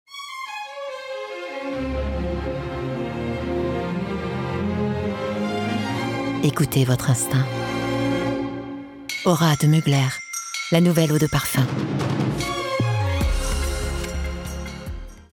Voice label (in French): Pub TV; Aura de Mugler Sauvage